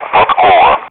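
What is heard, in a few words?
"Podkova", Russian; DCS; ATC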